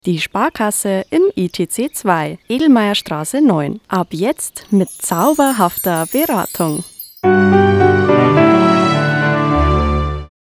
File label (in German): Werbung Reminder; Sparkasse